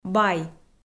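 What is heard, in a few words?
"бай", Komi-Zyrian, female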